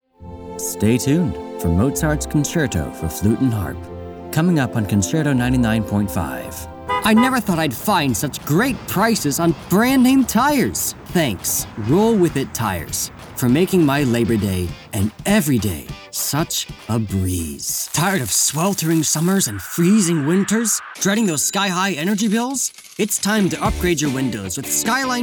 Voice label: Commercial

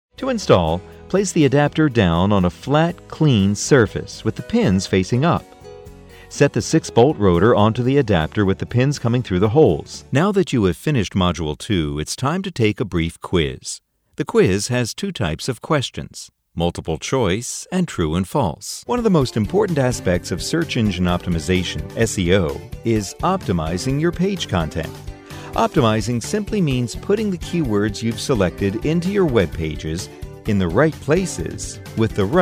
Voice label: eLearning

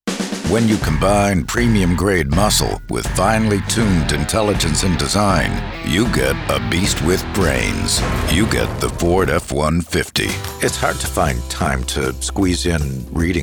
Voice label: Commercial Demo

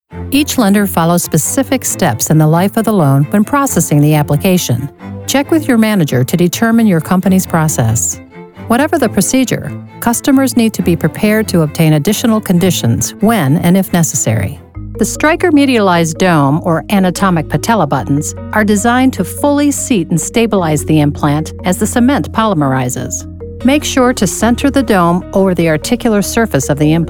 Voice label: eLearning

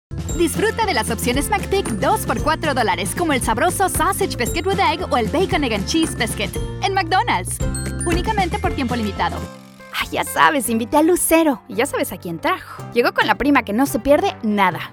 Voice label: spanish

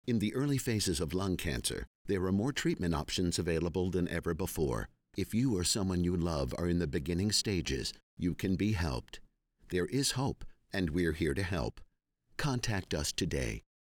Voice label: Healthcare narration

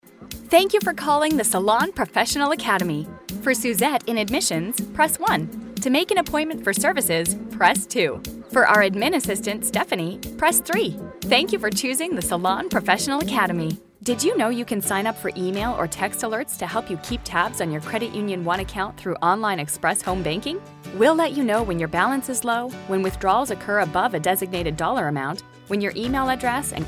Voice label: IVR

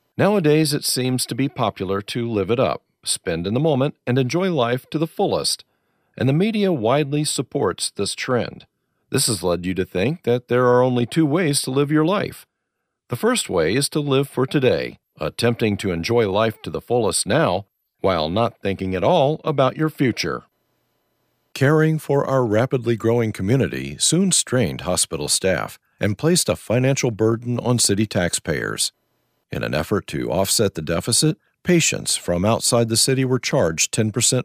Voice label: eLearning VO

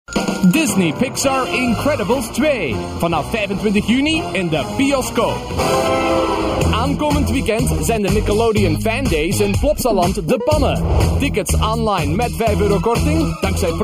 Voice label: commercial flemish

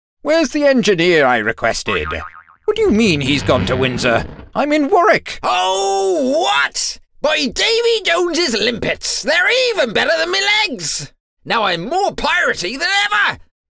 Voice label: VO character

